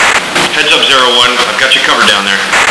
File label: radio; quake2; coop; vox